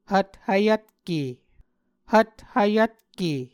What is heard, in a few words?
"hvthvyvtke", Muscogee